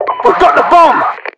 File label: announcer explosives planted; Voiceovers